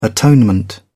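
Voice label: "atonement", English, uk British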